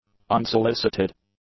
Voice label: "unsolicited", english; adjectives